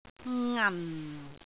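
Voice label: "ngan4", Cantonese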